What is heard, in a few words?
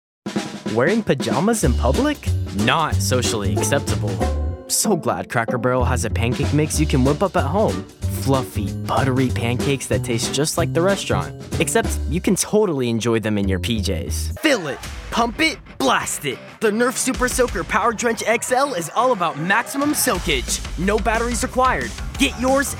Commercial, a teenage voice talent; ESL